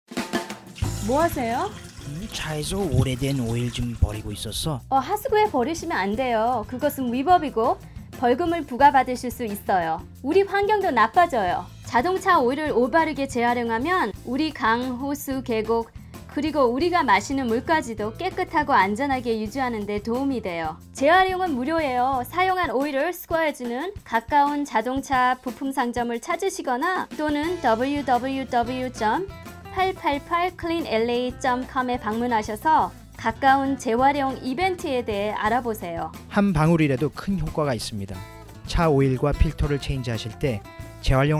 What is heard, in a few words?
Recycling Korean